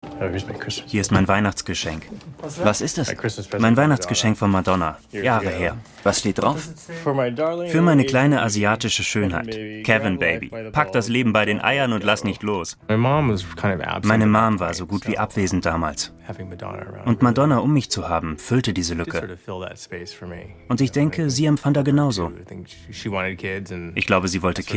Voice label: Voice Over; Kevin Stea aus EXPRESS YOURSELF DIE TAeNZER DER QUEEN OF POP; Arte